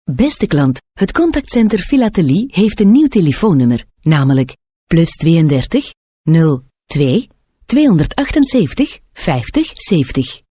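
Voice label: flemish female ivr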